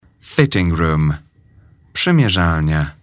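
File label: "fitting room", English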